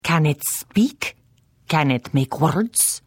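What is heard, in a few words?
Hello, Khajiit female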